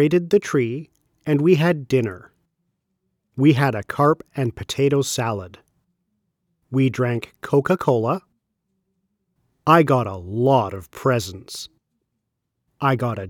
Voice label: Christmas Slow And Clear; Fiverr